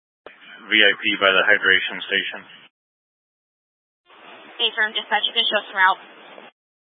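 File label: Incident Tactical 3, Scanner audio recording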